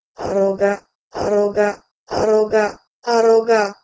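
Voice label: Proto-Indo-European h2roh1geh2 to Doric Greek aroga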